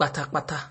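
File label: "kpatakpata", Nigerian Pidgin, male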